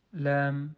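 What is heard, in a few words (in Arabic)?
"ل", Arabic; quiz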